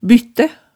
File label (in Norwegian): "byttæ", hemsedalsmaalet tale